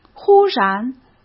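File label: "忽然" Mandarin Chinese; chinese flashcards; hsk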